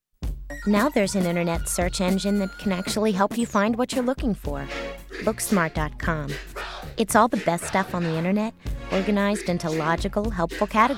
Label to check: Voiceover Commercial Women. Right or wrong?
Right